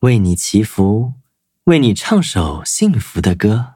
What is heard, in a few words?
loading, zh-hant; cookie run